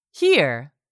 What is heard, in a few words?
"here", English; 3000 words